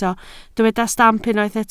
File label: "stamp", Welsh